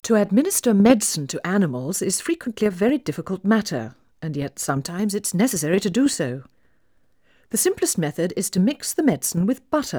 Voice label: Female speech English Mono output noised, 3bit